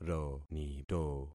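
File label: "ronito", concatenated syllables; Affixing experiment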